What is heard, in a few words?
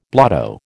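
"blotto", American English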